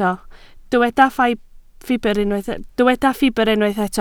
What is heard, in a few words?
"ffibr", Welsh